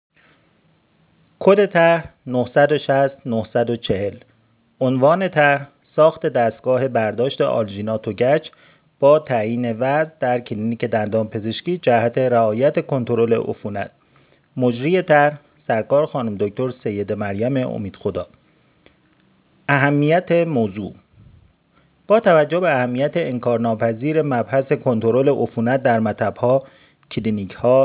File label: voice recorder; tarjoman